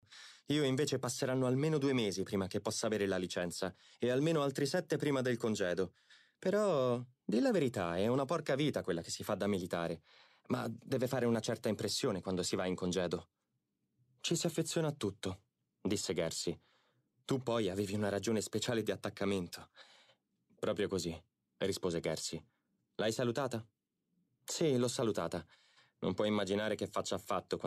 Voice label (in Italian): estratto audiolibro; il soldato